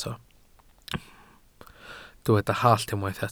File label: "hallt", Welsh